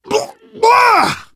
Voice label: reaction disgusted, survivor voice, manager